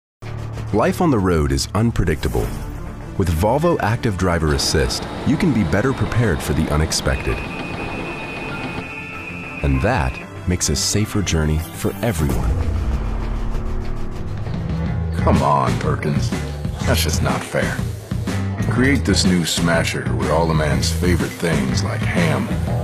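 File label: commercial, Voiceover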